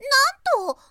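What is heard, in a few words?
"nanto", girl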